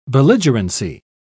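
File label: "belligerency", British English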